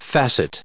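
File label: "facet", US English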